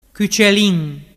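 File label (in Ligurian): "cucelin", Ligurian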